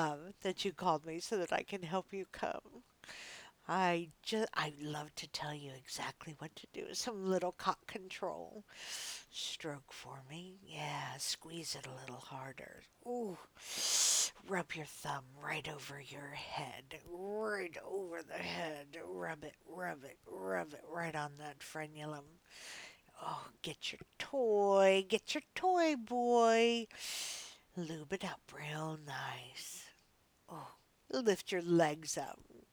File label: relaxing